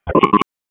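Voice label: Police Spec Ops Tac, radio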